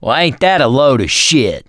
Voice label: reaction negative, survivor voice mechanic